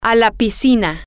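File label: to the swimming pools, spanish, female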